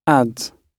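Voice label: "adds", British English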